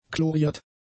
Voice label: "chloriert", German; adjectives